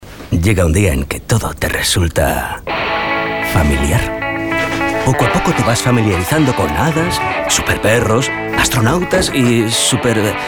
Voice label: male spanish car comercial; ideasonora